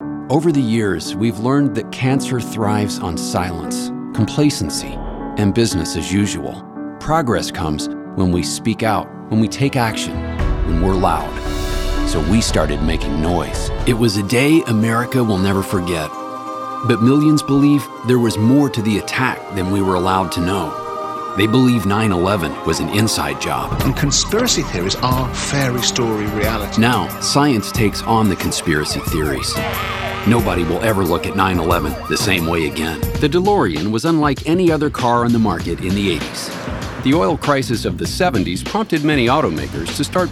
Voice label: Narration